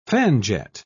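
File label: "fanjet", British English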